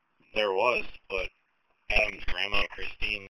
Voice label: Police Sheriff Dispatch